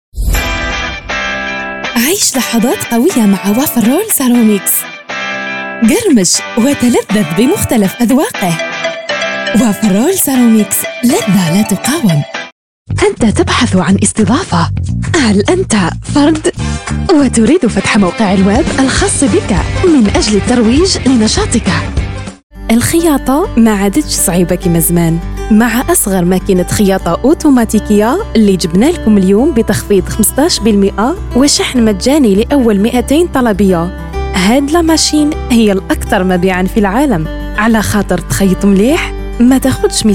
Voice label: Algerian Arabic

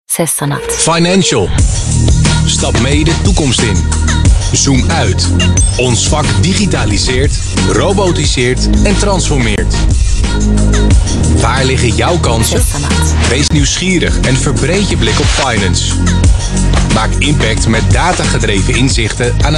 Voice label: Dutch

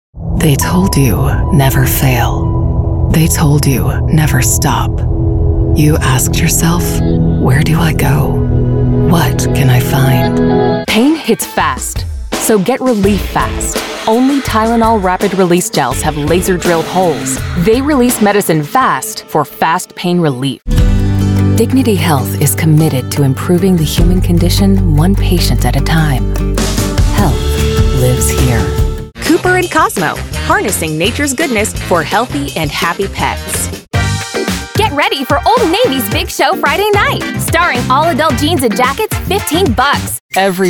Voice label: welsh ENG

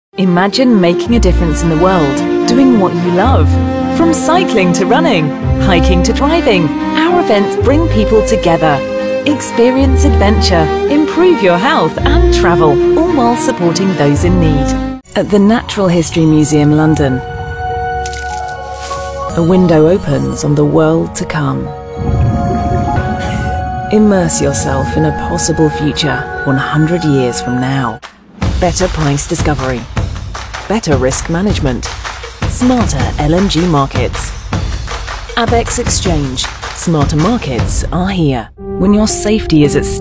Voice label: ENG BRITISH; British English

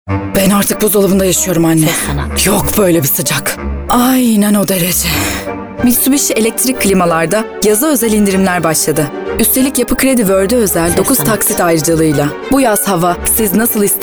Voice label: turk TR

